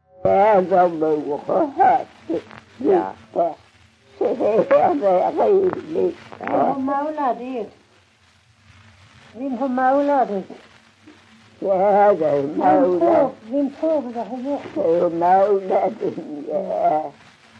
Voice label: Strövelstorp SK, dialekter; Folkminnen

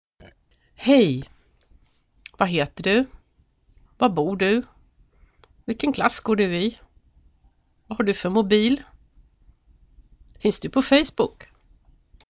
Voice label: Fraser svenska

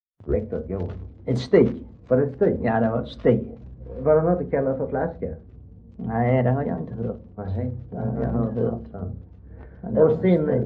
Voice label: Röke, dialekter; Folkminnen

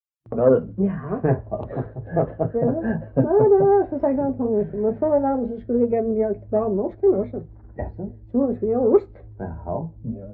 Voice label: Röddinge, dialekter; Folkminnen

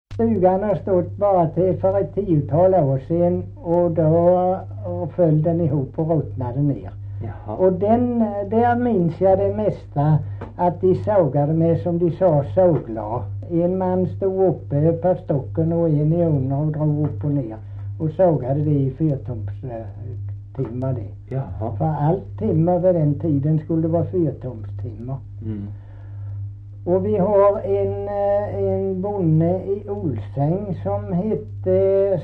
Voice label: Kristianopel BLEK, dialekter; Folkminnen

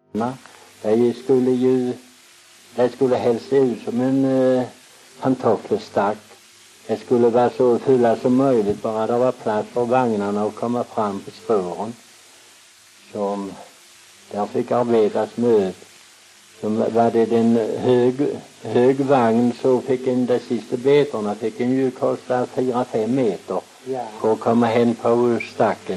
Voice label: Väsby, dialekter; Folkminnen

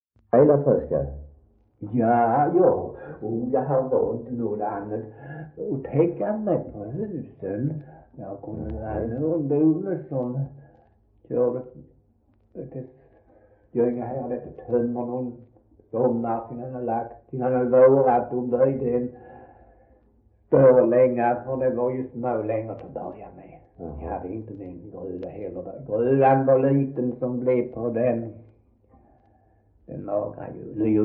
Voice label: Svensköp SK, dialekter; Folkminnen

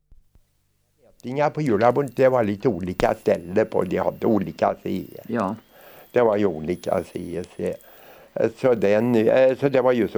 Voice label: Vesene, dialekter; Svenska sagor; Folkminnen

